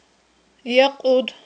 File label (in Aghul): "якьуд", aghul